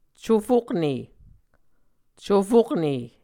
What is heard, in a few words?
"cufokne", Muscogee